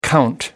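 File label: "count down", British English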